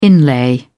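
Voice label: "inlay", British English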